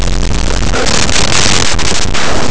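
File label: "abrigo", Spanish; laboratori fonetica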